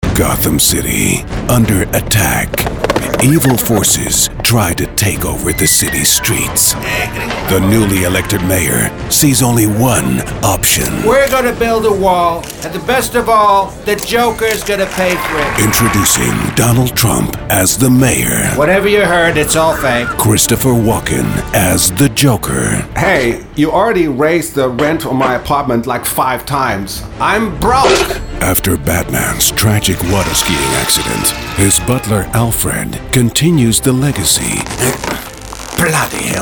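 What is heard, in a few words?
English movie trailer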